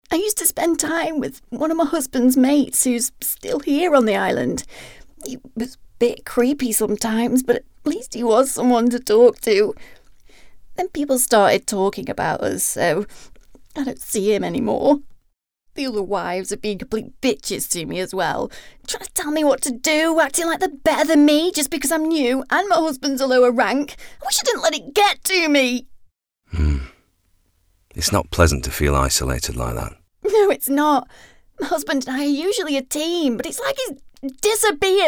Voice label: Elearning Upset Character